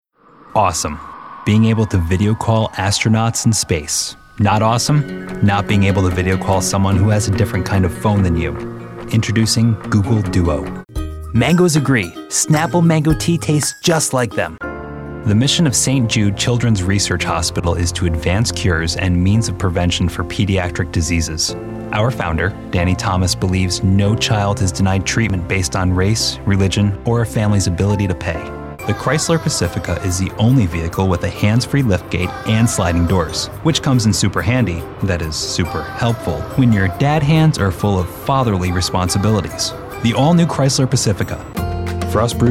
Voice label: Commercial